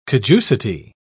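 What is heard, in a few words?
"caducity", British English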